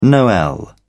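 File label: "noel", British English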